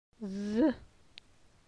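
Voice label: "Le son z", French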